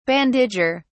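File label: "bandager", British English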